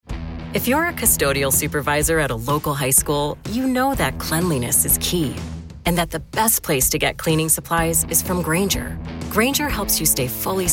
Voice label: camping horror stories deep woods rain sounds; black screen for sleep

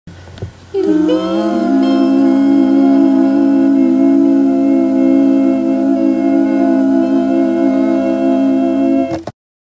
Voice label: testing voice after a very long cold still rusty

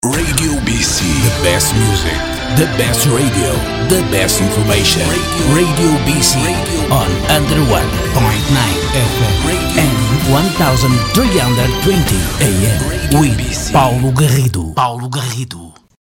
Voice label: jingle english; rbc 2014

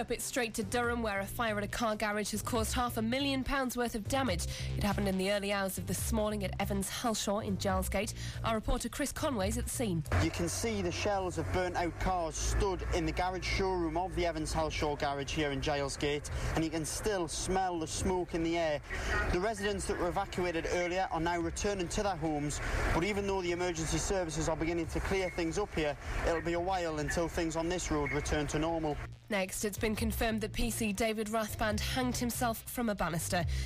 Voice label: voicer for radio news; metro radio 2pm news on car showroom fire

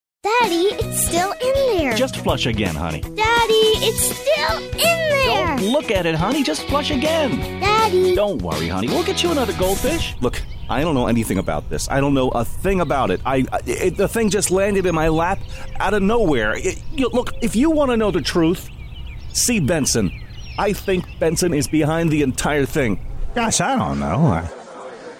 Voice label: vo character acting

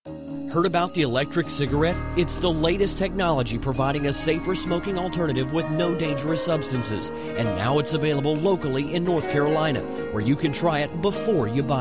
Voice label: radio commercial; Jackson Creek; e-cig

